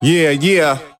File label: "yeah yeah", voice